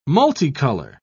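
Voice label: "multicolor", English, British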